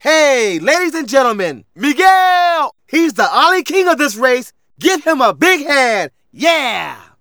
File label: Narration; Ollie King